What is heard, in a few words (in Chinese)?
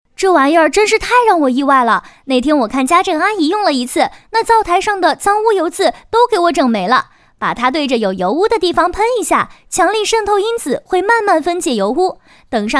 女 抖音视频介绍 去污剂